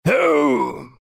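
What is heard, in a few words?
pain, Chinese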